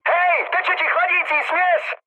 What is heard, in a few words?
damaged water, speech cze, mood high; War Thunder